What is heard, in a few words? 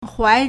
"huai2", Chinese